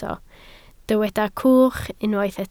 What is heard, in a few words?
"cwch", Welsh